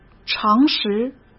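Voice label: "常识", Mandarin Chinese; chinese flashcards; hsk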